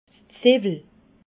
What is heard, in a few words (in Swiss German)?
"ziwel", alsace